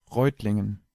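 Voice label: "Reutlingen", German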